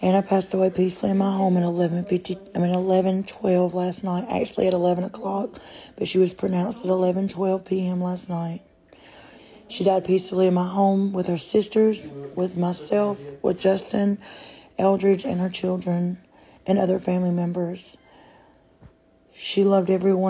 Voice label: DEATH ANNOUNCEMENT; MAMA JUNE; CHICKADEE